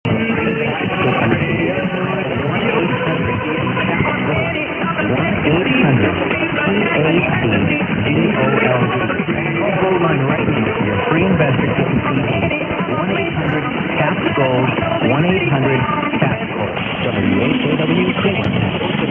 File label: 1440, mw dxing; whkw